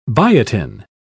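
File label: "biotin", British English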